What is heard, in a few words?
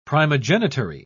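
"primogenitary", British English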